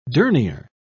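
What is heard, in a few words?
"dernier", British English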